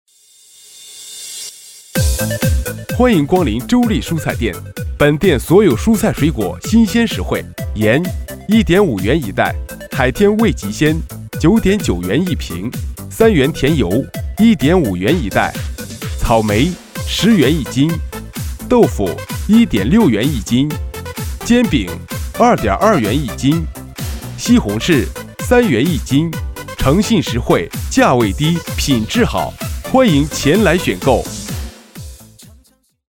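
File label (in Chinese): 男85 【促销】欢迎光临蔬菜店混音; 周丽蔬菜店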